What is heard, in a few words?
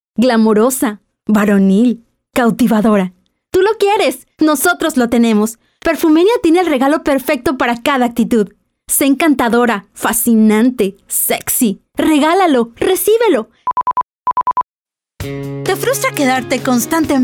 spanish females